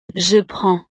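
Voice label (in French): "je prends", French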